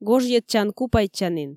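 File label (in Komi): "гожйӧдчан-купайтчанін", Komi, female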